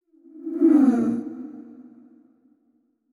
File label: thinking short; yamiko